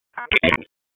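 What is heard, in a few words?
Police Southwest District Tac, radio; Raleigh; Tac 1; wake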